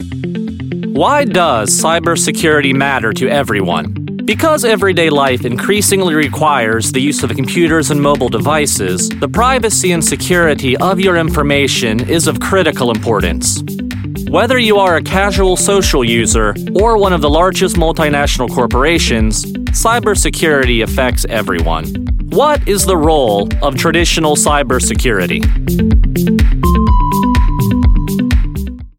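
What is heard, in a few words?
US English, male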